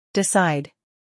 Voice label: "decide", English